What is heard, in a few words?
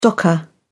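"docker", British English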